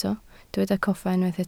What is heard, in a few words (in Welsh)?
"coffa", Welsh